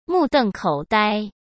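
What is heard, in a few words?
"目瞪口呆", Mandarin Chinese; hsk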